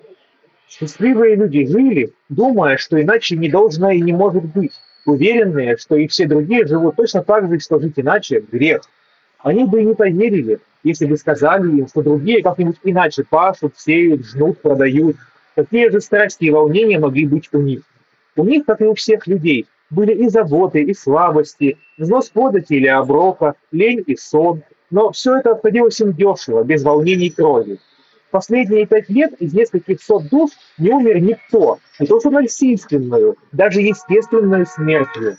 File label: Mic noise; Запись с микрофона гарнитуры; realme buds clip